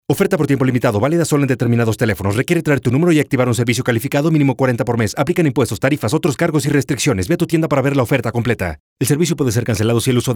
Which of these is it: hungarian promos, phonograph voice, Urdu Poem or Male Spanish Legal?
Male Spanish Legal